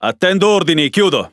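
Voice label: Speech Pilots Torpedo, Italian; World Of Warships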